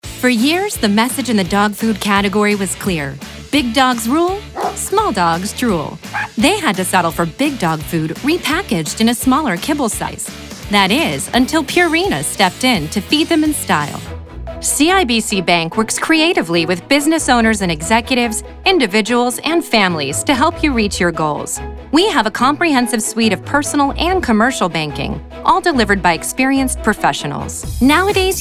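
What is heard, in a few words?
voice over narration; global voice acting academy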